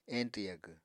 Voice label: "eñtw yagw", Apatani